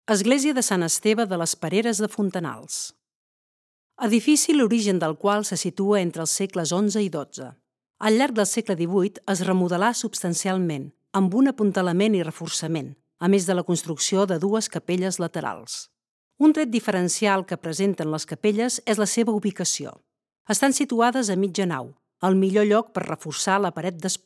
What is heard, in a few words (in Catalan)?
locucio catala; sant esteve les pereres